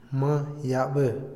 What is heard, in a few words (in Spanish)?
"por mucho tiempo", Otomí, hombre; Vocabulary; INALI